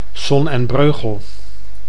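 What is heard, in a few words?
"Son en Breugel", Dutch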